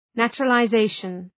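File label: "naturalization", English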